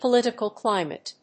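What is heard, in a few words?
"Political climate", English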